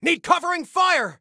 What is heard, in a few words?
Need Cover, Soldier Male; Soldier Male 01